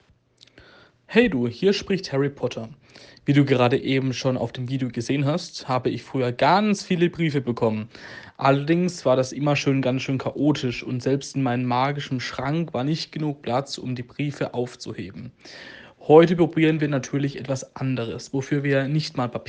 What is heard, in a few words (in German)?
Sprachnachricht